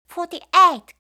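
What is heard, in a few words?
"48", English; eng suuji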